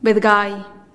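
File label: "bedgai", rooster, Teotitlán del Valle Zapotec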